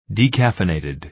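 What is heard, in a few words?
"decaffeinated", American English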